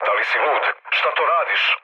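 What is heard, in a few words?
Serbian player killed ally mood low; speech; War Thunder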